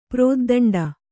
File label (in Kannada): "ಪ್ರೋದ್ದಂಡ", Kannada